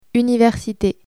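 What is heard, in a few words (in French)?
"université", French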